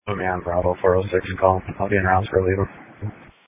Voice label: Sheriff Dispatch